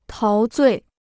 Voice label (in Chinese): "陶醉", Chinese